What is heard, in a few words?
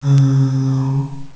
demon hello; cstrike; sank sounds